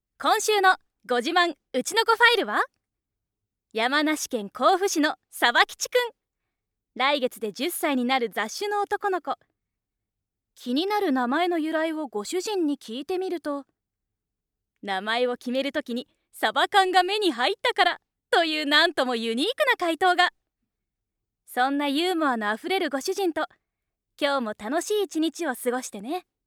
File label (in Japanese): Doubutsu Bangumi Narration